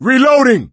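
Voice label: reloading, male; Left 4 Dead 2; coach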